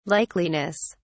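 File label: "likeliness", British English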